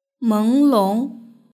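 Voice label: "menglong" Chinese word; nankeyimeng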